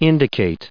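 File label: "indicate", US English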